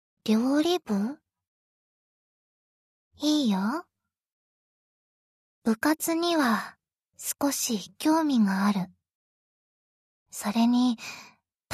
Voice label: Little girl type student